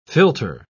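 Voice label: "philtre", British English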